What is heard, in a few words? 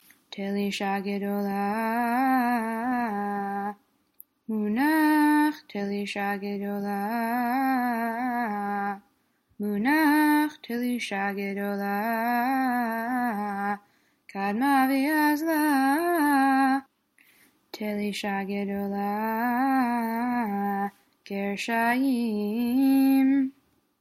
polish lithuanian haftorah תלישא גדולה